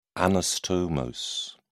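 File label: "anastomose", English, British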